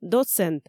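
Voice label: "доцент", Komi, female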